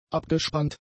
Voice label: "abgespannt", German; adjectives

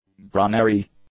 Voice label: "granary", English; nouns

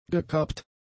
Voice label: "gekappt", German; adjectives

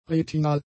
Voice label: "retinal", German; adjectives

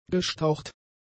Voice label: "gestaucht", German; adjectives

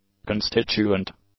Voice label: "constituent", English; adjectives

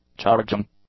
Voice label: "charging", English; nouns

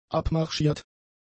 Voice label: "abmarschiert", German; adjectives

